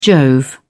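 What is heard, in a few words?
"jove", British English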